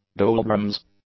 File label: "doldrums", English